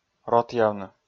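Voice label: "ротъявны", Komi, male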